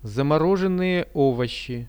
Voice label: "zamorozhennyje ovoshi", Russian; замороженные овощи; Это замороженные овощи.; products